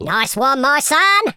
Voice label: "excellent", London Cabbie; speech

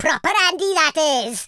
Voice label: flawless, Welsh; speech

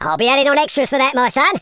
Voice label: "brilliant", London Cabbie; speech

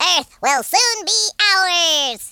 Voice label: excellent, speech, Alien; Worms3D

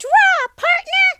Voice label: "come on then", speech, Cowgirl

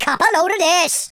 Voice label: watch this, Welsh; speech

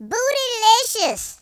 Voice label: bummer, Gangsta; speech

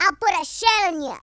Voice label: "just you wait", Gangsta; speech

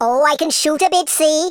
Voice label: fire, Welsh; speech

